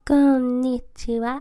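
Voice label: "Konnichiwa", Japanese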